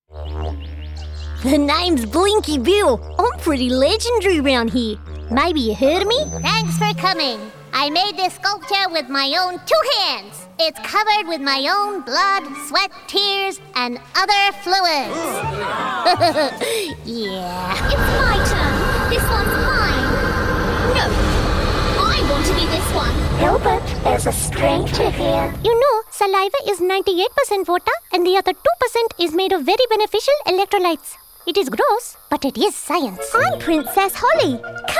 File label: Character Gaming